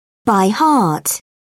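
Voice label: "by heart", English, British English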